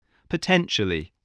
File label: "potentially", English